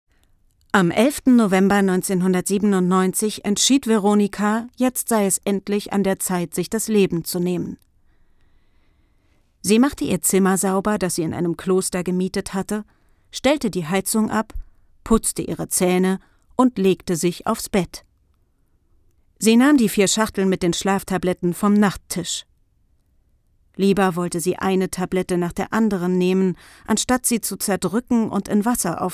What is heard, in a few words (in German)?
HOERBUCH